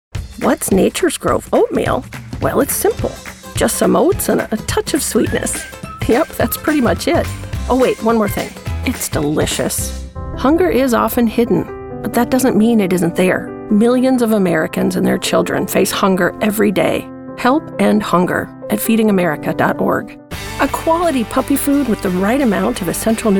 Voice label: Commercial